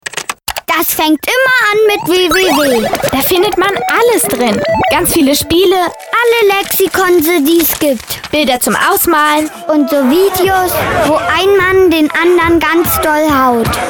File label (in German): Radiospot; sicher online gehen